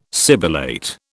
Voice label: "sibilate", British